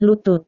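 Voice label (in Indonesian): "lutut", Indonesian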